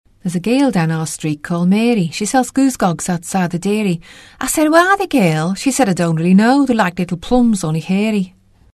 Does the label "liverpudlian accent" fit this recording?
Yes